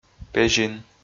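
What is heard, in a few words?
"пезин", Komi, male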